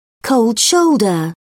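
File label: "cold shoulder", British English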